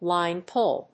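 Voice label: "line pull", English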